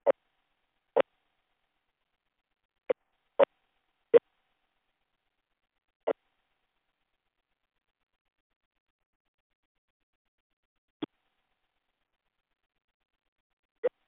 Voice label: Space to Ground; Expedition 39